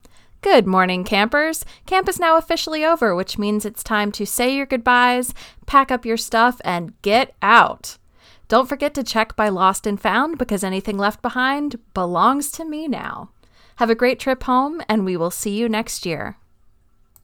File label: announcements